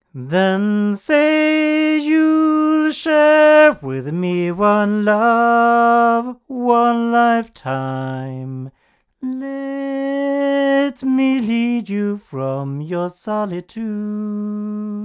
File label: Neumann TLM170 cardioid male